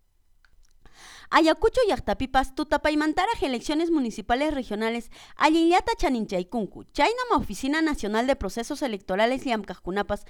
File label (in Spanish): voz en off; ayacucho; QUECHUA; ELECCIONES MUNICIPALES